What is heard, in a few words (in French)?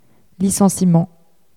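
"licenciement" French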